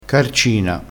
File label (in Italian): "carcina", crespinese